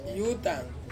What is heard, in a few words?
"utang", to ask, Ansus